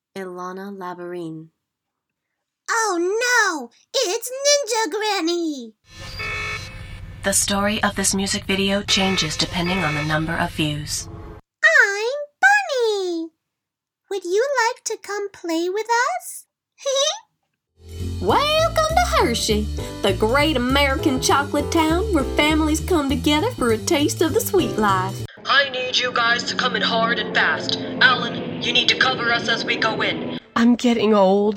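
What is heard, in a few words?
Character, USA, female; narrator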